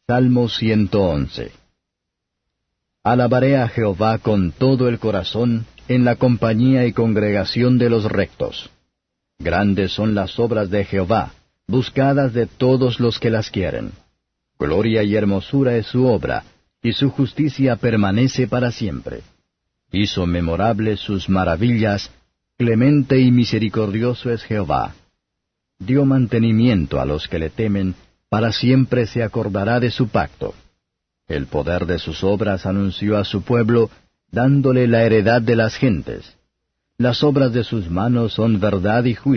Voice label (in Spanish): salmos spanish